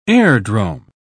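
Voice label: "airdrome", British English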